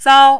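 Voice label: "zao1", mandarin, high level tone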